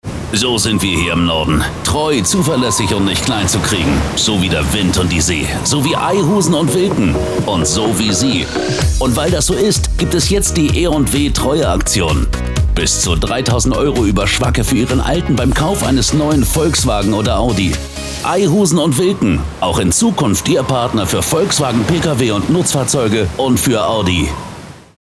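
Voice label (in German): Werbung Kraftvoll; E&W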